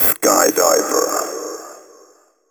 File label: techno male 140; Vocals